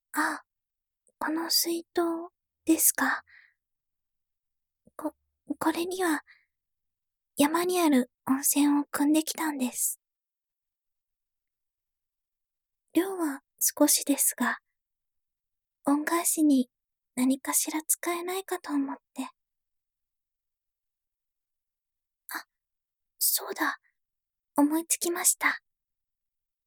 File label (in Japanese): 耳をお拭きしますね